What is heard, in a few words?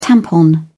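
"tampon", British English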